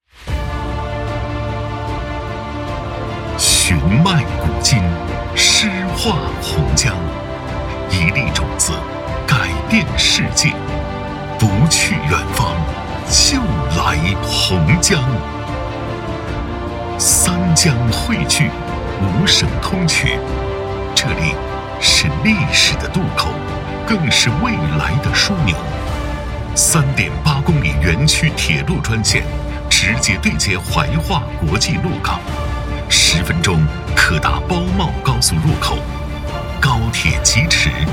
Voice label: 男 宣传片; 洪江市高新区

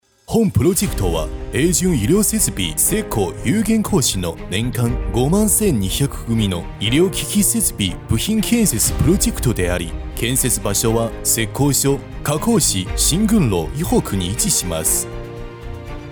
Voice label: 男 日语

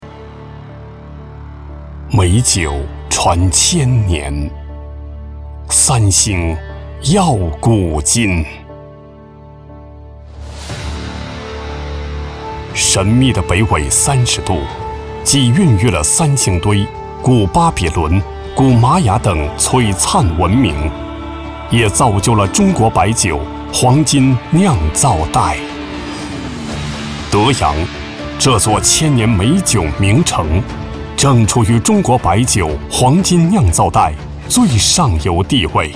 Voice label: 男 酒企; 德阳美酒

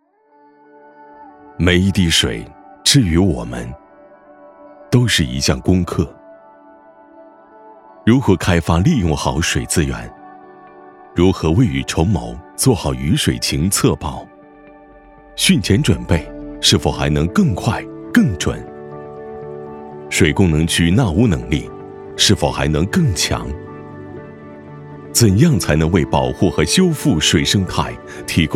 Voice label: 男 宣传 讲述稳重; 武汉水文

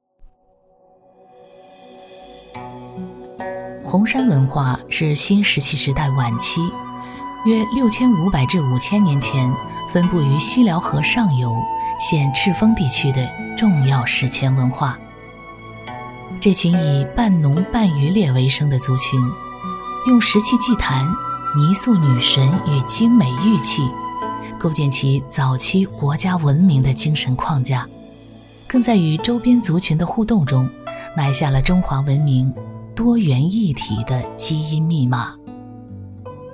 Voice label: 女 纪录片; 红山文化

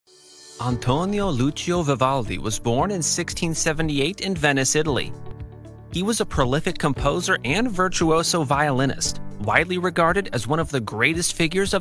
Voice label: 年轻轻快

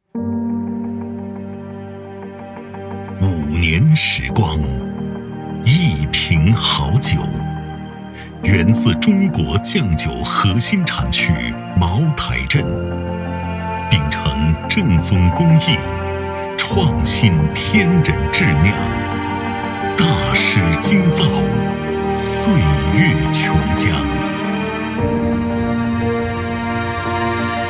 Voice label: 男 广告; 茅台镇国标酒

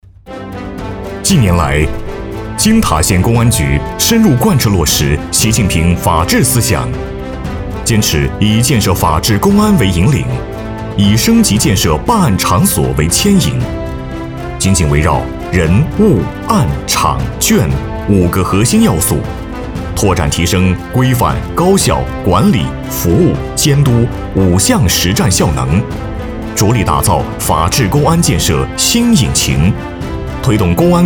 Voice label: 男 专题

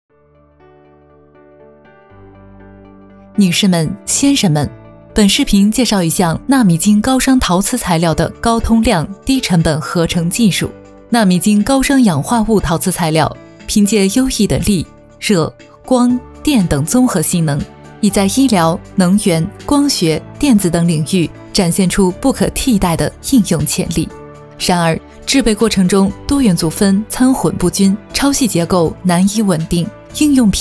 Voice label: 女 解说 科技材料 纳米晶高熵陶瓷

